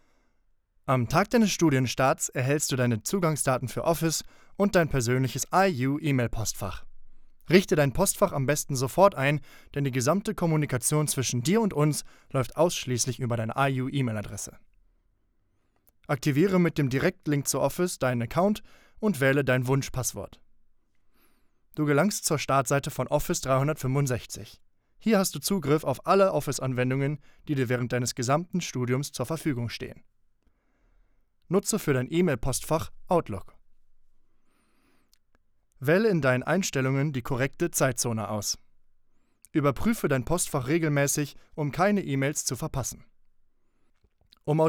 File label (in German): Sprechprobe Erklaervideo